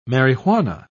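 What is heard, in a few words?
"marihuana", English, British English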